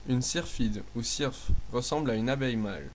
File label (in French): hoverfly desc, fr; insects; tuxpaint stamps; animals